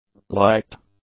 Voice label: "liked", english; adjectives

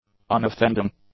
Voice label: "unoffending", English; adjectives

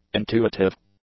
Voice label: "intuitive", english; adjectives

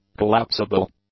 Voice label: "collapsible", English; adjectives

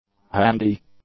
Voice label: "handy", English; adjectives

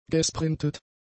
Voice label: "gesprintet", German; adjectives

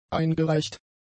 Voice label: "eingereicht", German; adjectives

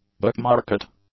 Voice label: "bookmarket", English; nouns

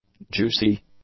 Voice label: "juicy", english

